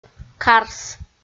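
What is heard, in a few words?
"карс" Komi, female; kpv audio dict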